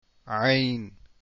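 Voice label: ain, arabic letters; deen path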